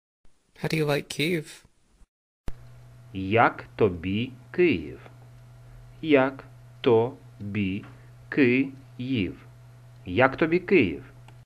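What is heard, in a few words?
Ukrainian, How do you like Kyiv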